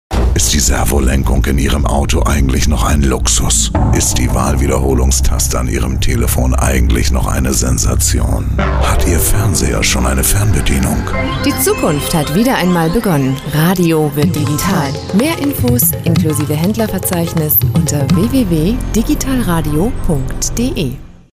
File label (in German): spot